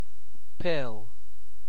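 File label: "pill", British English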